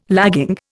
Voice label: "lagging", Indian